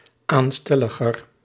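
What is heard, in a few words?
"aanstelleriger", Dutch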